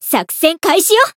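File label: variable chant, Japanese; renri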